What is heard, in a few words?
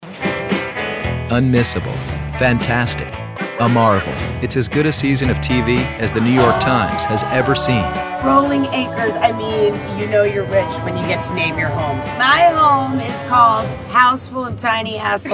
TV Promo